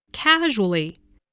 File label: "casually", spelling